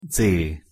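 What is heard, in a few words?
"dz", Hungarian pronunciation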